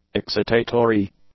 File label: "excitatory", english; adjectives